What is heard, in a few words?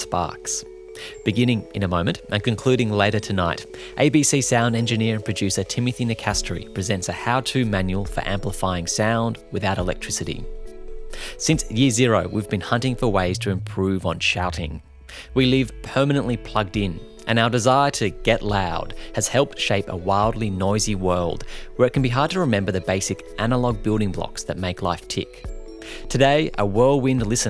RADIO